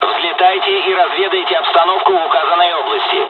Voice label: fly, dialogs russian; War Thunder